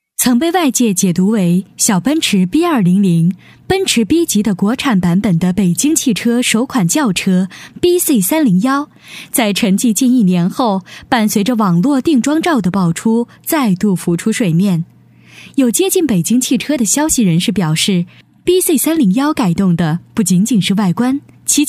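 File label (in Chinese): 女 专题配音【知性】; 奔驰车; 温柔知性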